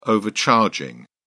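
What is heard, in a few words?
"overcharging", British English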